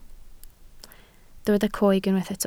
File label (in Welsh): "coeg", Welsh